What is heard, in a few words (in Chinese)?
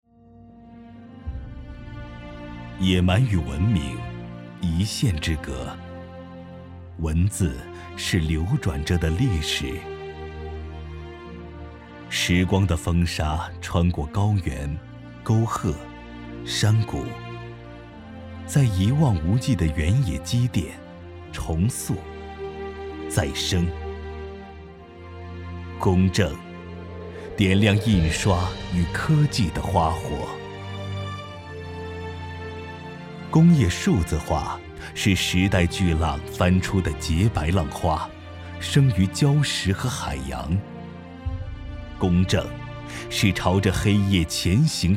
男 宣传片 制造企业 沉稳缓慢